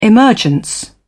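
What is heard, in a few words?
"emergence", British English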